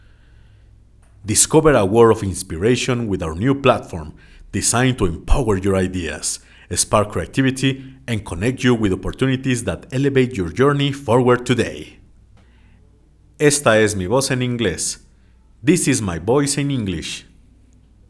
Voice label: English